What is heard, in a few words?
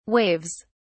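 "waves", British English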